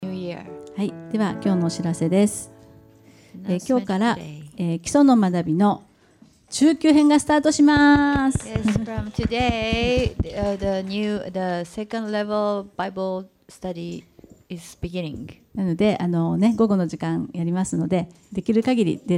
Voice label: announcement; sunday message 2017